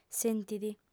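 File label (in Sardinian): "sentit", female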